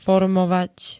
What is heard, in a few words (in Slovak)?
"formovať", slovenské